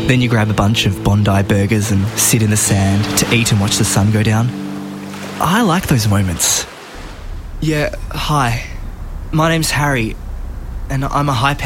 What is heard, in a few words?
Voice Demo; Actor, Voiceover; Location Perth